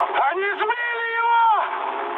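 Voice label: Russian aircraft destroyed mood high, speech; War Thunder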